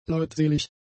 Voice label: "leutselig", German; adjectives

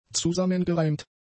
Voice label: "zusammengereimt", German; adjectives

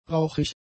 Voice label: "rauchig", German; adjectives

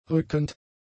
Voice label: "rückend", German; adjectives

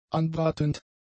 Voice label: "anbratend", German; adjectives

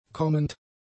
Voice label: "kommend", German; adjectives

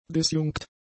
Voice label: "disjunkt", German; adjectives

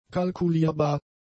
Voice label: "kalkulierbar", German; adjectives

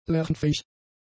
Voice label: "lernfähig", German; adjectives

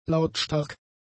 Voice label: "lautstark", German; adjectives

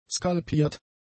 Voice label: "skalpiert", german; adjectives

